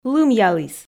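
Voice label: "лымъяліс", Komi, female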